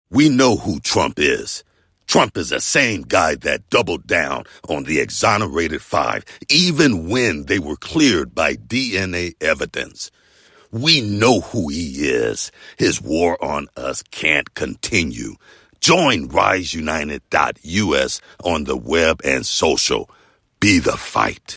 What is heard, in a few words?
Infomercial Angry Resolute Committed